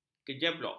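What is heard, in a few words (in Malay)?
"kejeblok", Malay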